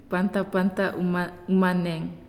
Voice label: "Green", Naasioi